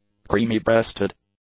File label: "Creamy-breasted", English; nouns